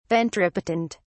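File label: "ventripotent", British English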